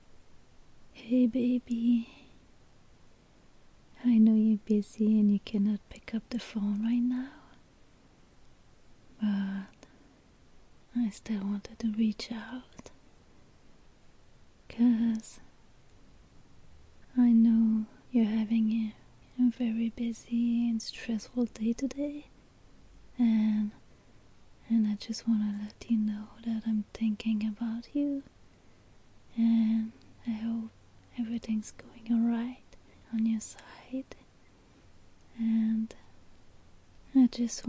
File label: Sweet Girlfriend Voicemail